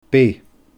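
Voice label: "beith", Scottish Gaelic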